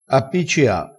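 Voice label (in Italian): "appiccia", dialettale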